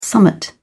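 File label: "summat", British English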